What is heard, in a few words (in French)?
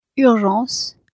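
"urgences", French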